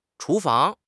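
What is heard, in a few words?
"chu2 fang2", Chinese; HSK4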